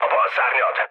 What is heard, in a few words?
left wing, mood low, speech hun; War Thunder